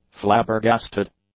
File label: "flabbergasted", english; adjectives